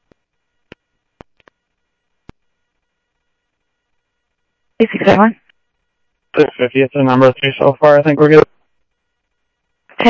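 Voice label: Sheriff East County, scanner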